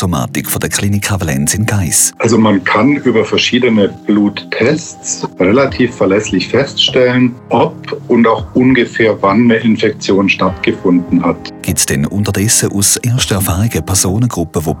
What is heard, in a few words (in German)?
Infomercials Long Covid; Kliniken Valens